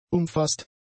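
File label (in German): "umfaßt", German; adjectives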